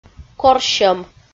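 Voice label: "корсьӧм" Komi, female; audio dict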